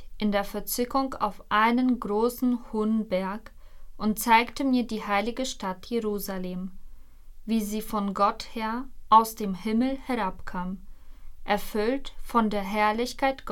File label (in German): Lesung; 6 Ostersonntag C